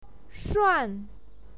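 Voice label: "shuan", Mandarin Chinese, fourth tone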